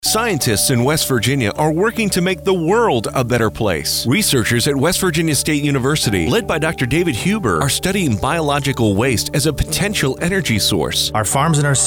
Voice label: Radio Advertisement